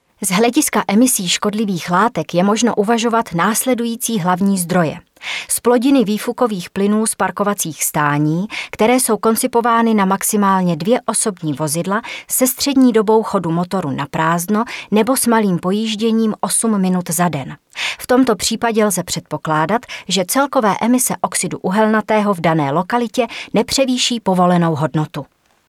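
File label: Female Czech documentary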